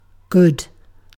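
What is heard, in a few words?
"good", British English; pronunciations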